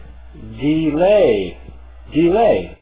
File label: "delay", pronounce it